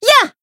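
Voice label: Voice attack, Japanese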